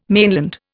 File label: "mainland", Indian